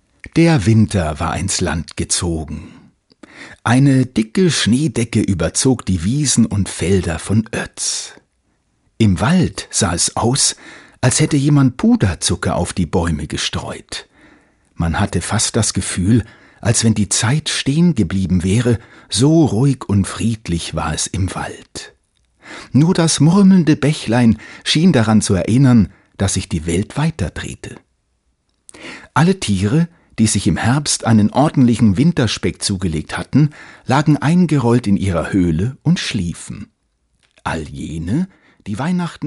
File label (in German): Erzaehler